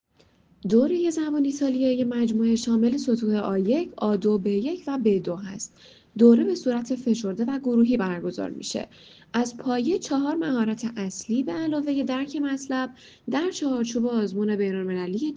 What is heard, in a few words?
Italian; GAMA; language school tehran